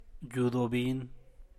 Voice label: "yudo bin", San Mateo Macuilxochitl Zapotec, small church; iglesia pequeña